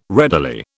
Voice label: "readily", British